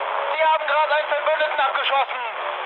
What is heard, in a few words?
player killed ally, mood med, speech ge; War Thunder